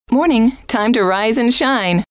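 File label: morning time to rise and shine, female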